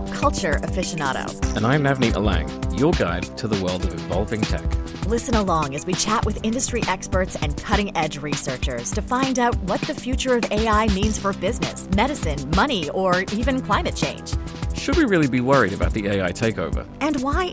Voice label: Podcast

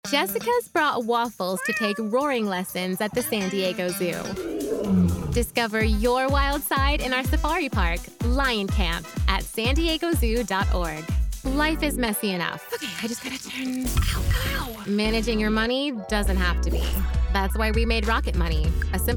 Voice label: Commercial

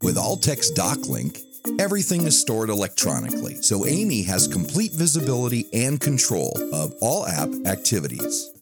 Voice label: with music; English (Australian)

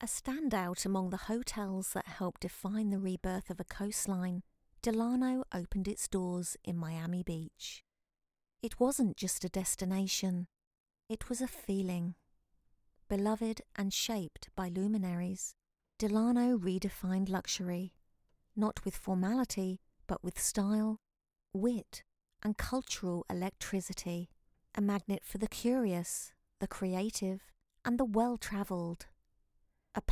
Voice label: Corporate Video Narration British Elegance

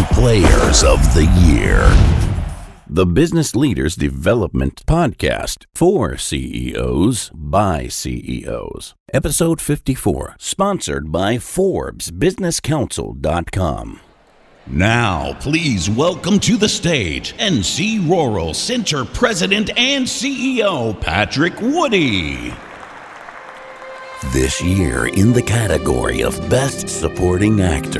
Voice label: Voice Of God Live Announce

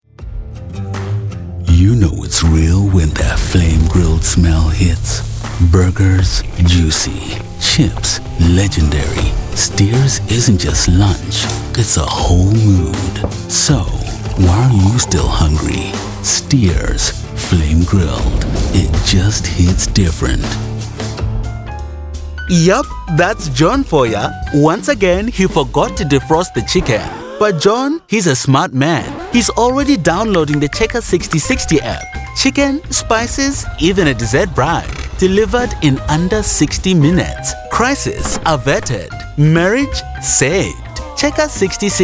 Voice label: VO African, British

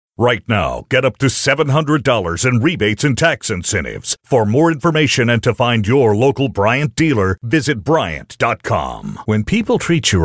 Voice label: Sampler, male voice overs

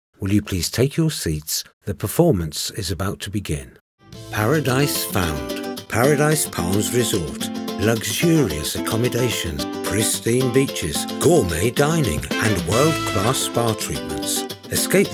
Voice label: Voice Over Reel, British

